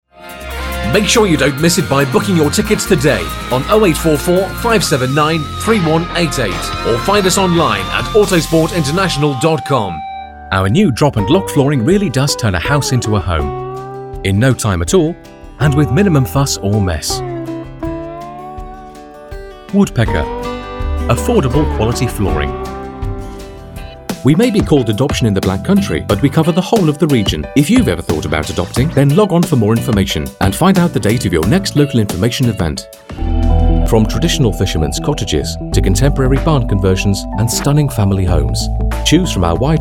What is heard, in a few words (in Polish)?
mix reklam